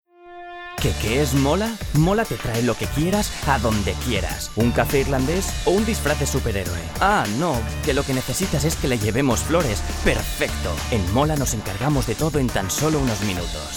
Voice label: male spanish shiping comercial; ideasonora